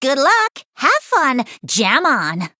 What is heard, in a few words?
Female Human Greetings